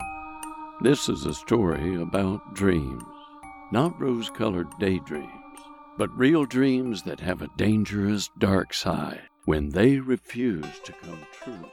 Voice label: Homemade Mono